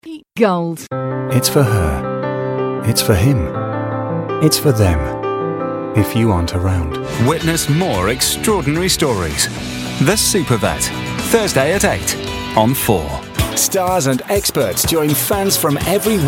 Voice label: commercials

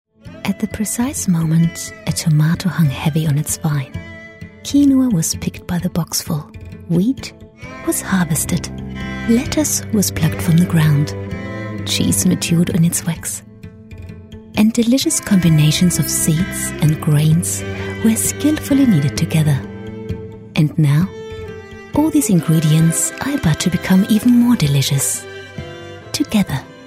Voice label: german commercial english

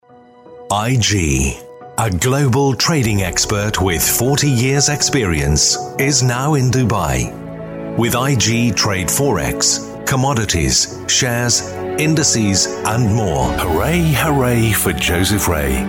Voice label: deep

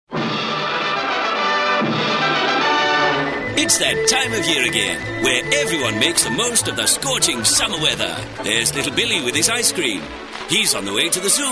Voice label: 1940s man; pathe

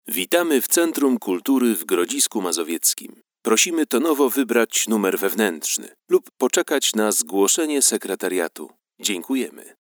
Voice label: polish ivr

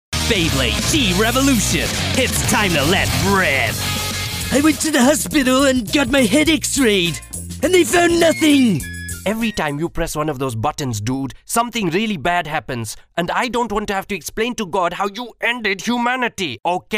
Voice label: english male character mix

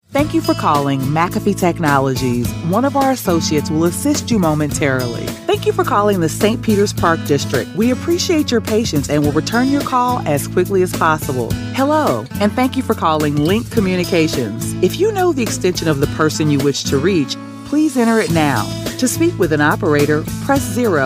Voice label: african american telephone

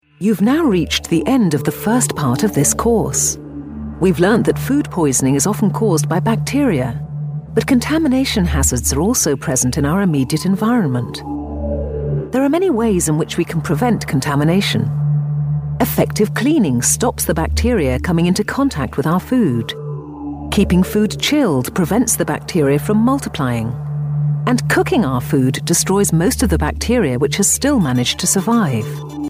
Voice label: e-learning

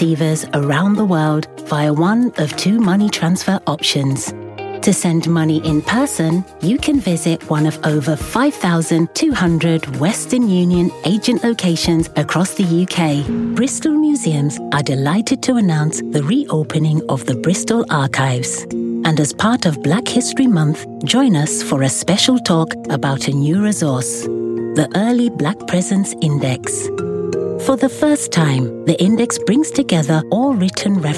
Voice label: london voice narration

